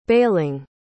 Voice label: "baling", pronounce

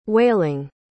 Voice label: "wailing", pronounce

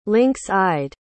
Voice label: "lynx-eyed", pronounce

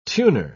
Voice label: "tuner", pronounce

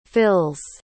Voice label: "fills", pronounce

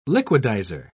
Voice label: "liquidizer", pronounce

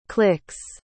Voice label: "clicks", pronounce

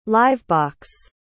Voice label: "live-box", pronounce

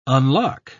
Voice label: "unlock", pronounce

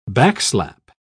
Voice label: "backslap", pronounce

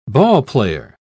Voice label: "ballplayer", pronounce

